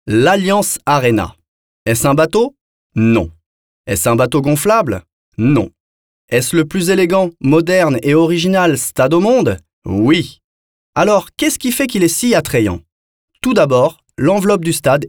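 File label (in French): French, male, narration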